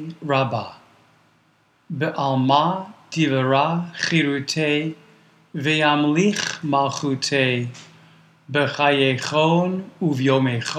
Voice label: Mourners Kaddish read